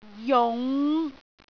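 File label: "jung5", Cantonese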